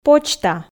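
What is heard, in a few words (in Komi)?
"почта" Komi, female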